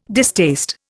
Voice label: "distaste", Indian